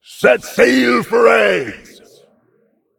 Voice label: Demon Emote HAPPY; Patches; NOBLEGARDEN